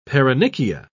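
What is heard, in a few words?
"paronychia", British English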